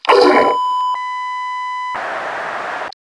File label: die, overwatch radio voice; Half Life 2